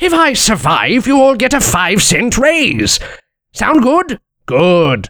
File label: Civilian battlecry